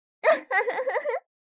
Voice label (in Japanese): mob 女子 台詞